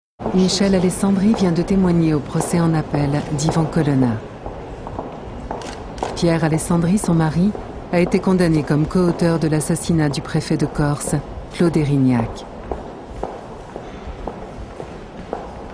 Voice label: french narration

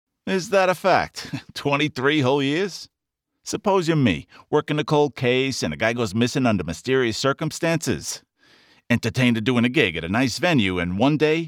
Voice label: New York Accent, American

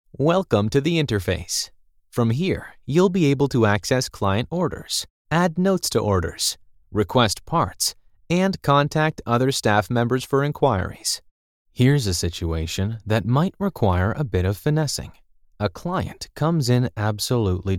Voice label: English Canadian e-learning

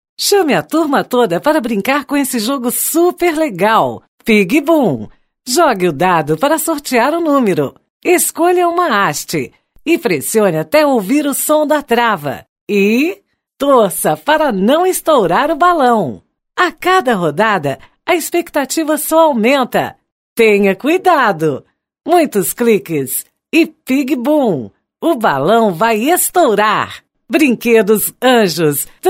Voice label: portuguese video games

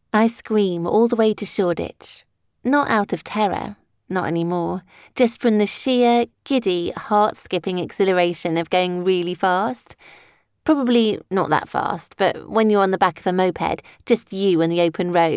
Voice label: english british audiobooks

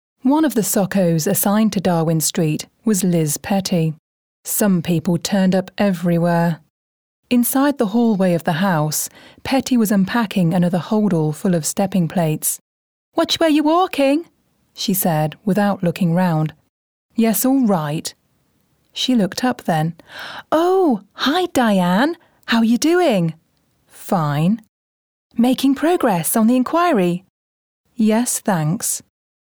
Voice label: english british audiobooks

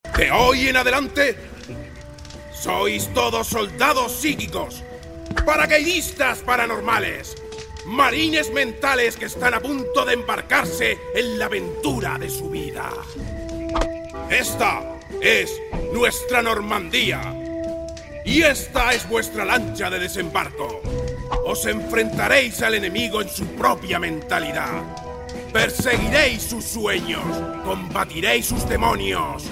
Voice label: spanish castilian

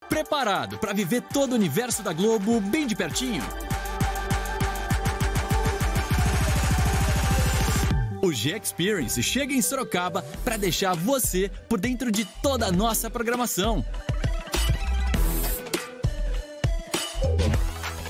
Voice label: portuguese brazilian announcements, voice actors; Locutor, apresentador, comunicador; Anúncios

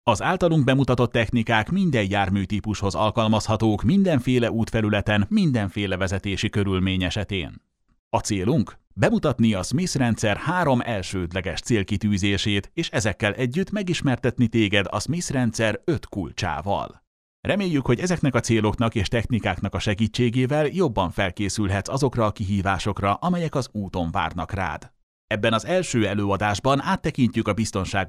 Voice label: hungarian e-learning

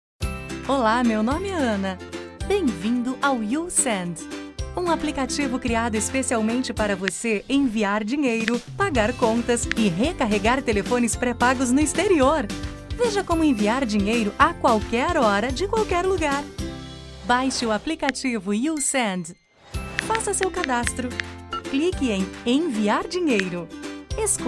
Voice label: Portuguese Brazilian explainer videos

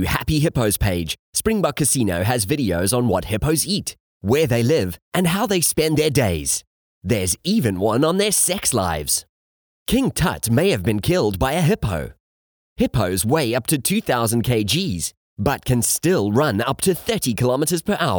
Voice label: English South African promos

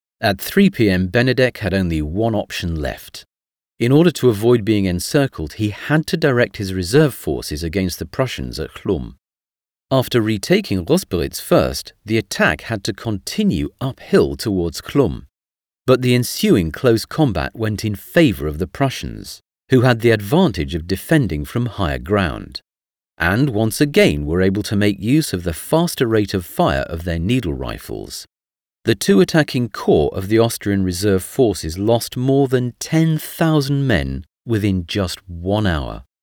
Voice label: English British audio guides; Source Connect Studio

